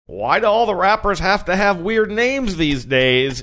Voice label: saying why do all of rappers have to have weird names funny